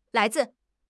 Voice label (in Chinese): "lai2 zi4", 汉语; 汉语口语新时代-初级下